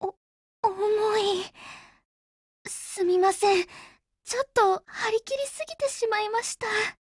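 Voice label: OPERATION OVER, Japanese; GF Alarm; CAR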